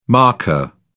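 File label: "markka", British English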